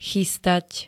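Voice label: "chystať", Slovak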